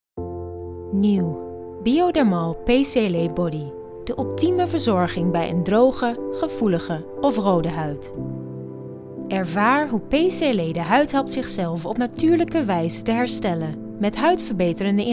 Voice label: Dutch